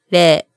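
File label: "re", Japanese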